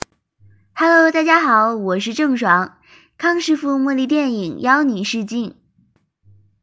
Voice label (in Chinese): 女36 模仿; 模仿郑爽